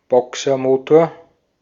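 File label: "Boxermotor", Austrian German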